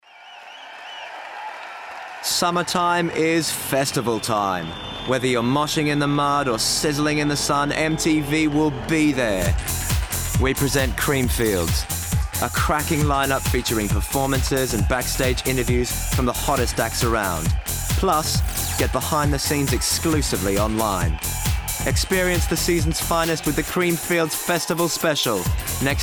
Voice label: commercial; MTV